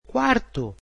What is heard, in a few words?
"fourth", portuguese